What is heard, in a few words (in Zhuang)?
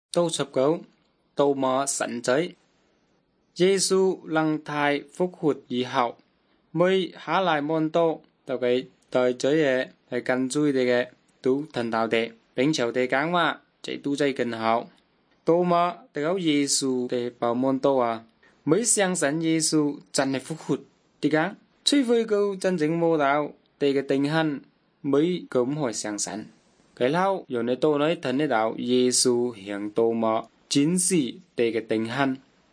good news zhuang nanning fushu